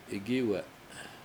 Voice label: grow person, Washo

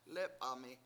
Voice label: "lE7pami7", washo; I went

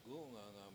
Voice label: "gU7nganga7", Washo; nowhere

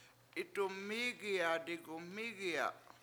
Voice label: I look at myself in mirror, washo; itdumMIIgiya digumMIIgi7a

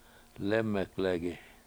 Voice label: "lEme7legi", washo; I coughed

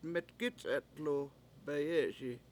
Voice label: "met$gI7c$etlu beyE7eSi", washo

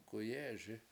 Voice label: "k7uyE7eSi", washo; swims underwater

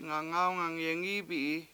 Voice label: "ngangAwngang yengIIbi7i", washo; many children ran over

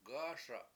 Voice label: go urinate, Washo

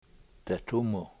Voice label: "detumu" leader, Washo

